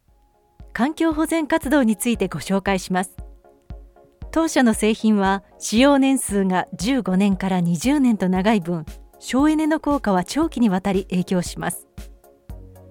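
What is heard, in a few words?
Japanese corporate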